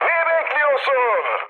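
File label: attack position, mood high, Turkish; War Thunder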